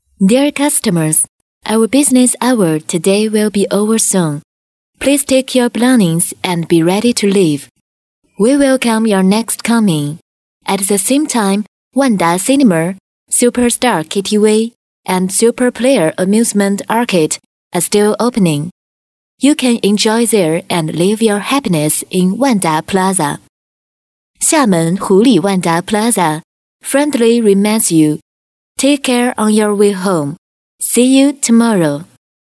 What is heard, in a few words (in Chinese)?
女 英 大音 英语; 万达广场英语